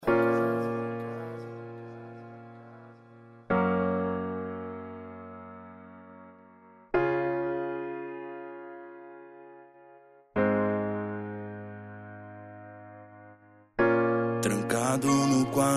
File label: rap